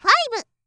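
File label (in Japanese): "ファイブ" Japanese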